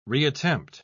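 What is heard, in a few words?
"reattempt", British English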